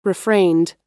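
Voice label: "refrained", US English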